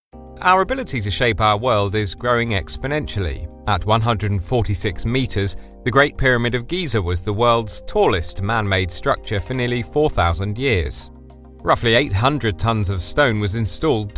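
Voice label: M, English UK, male, narration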